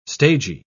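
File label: "stagey", British English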